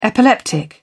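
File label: "epileptic", British English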